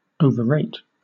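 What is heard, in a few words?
"overrate", British English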